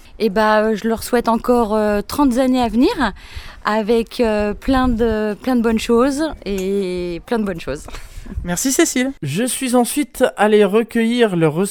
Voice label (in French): Reportage train resto